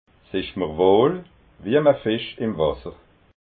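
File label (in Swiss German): "wohl", Alsatian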